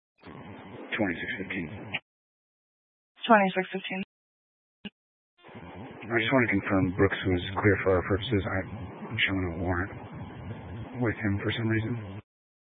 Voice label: Sheriff Dispatch, scanner; Mesa County